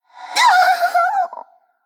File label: Female Banshee Death